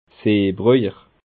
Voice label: "seebrojer", Bas Rhin

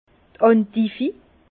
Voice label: "endiv", Bas Rhin; vin; endive

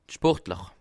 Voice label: "sportler", alsace

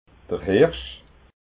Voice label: "hirsch", alsace

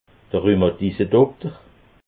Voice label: "rhumadissedokter", alsace

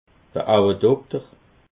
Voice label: "auwedokter", alsace

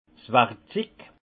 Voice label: "warikzig", Alsace; les outils du vin

